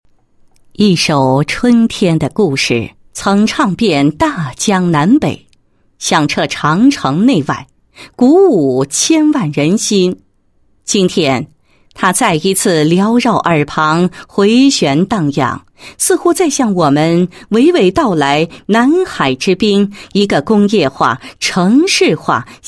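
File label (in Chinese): 女 工作纪实, 监察局工作纪实; 深圳市监察局30年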